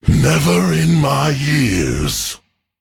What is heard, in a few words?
Male Drust Emote WOW